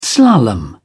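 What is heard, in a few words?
"slalom", British English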